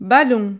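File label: "ballon", French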